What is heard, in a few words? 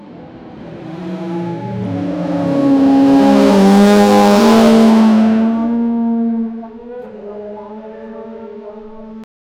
a continuous low volume a, announcements